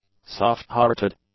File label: "soft-hearted", english; adjectives